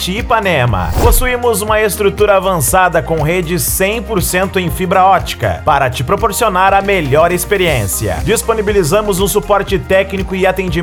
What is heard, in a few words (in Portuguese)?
Locutor Internet; Internet Centralnet; Ipanema MG